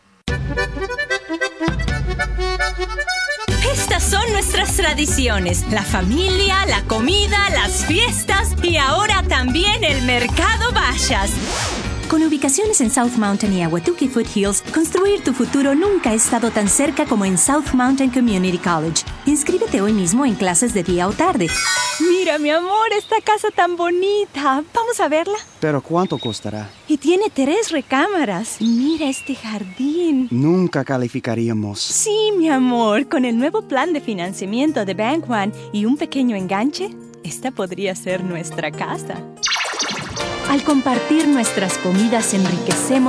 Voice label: Commercial; Female voices